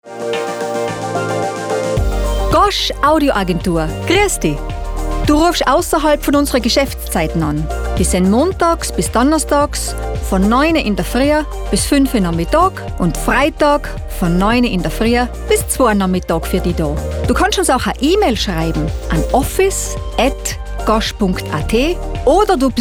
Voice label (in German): Tirolerisch; TVM